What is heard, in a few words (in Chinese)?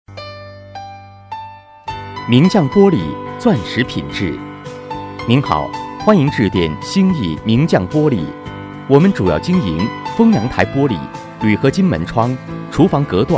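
男 防盗玻璃公司, 广告配音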